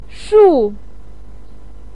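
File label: "shu4", Mandarin Chinese, pinyin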